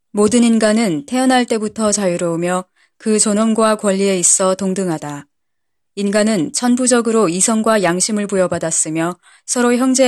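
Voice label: Korean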